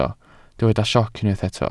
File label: "sioc", Welsh